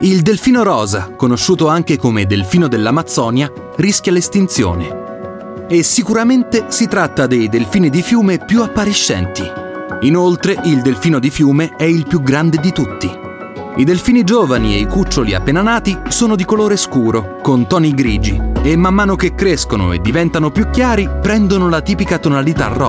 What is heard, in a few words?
italian voice over male documentary